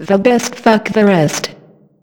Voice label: BEST REST, Funky Vocals; USB Soundscan vol.18; AKAI; COMPUTER